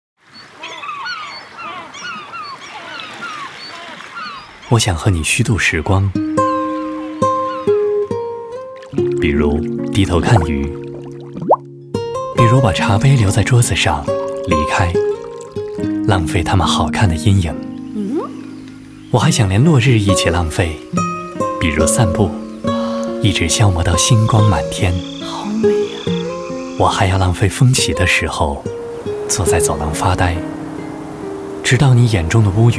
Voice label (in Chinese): 男 讲述 沉稳 温情 抒情